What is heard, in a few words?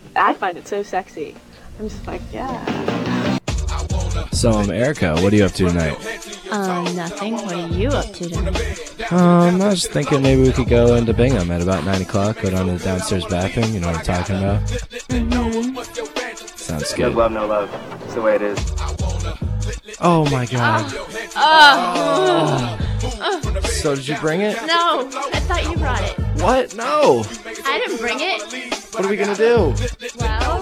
commercial; trojan